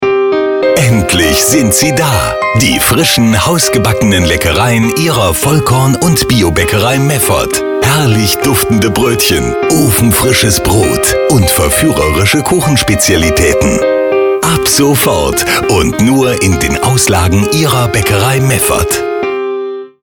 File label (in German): Food Radiowerbung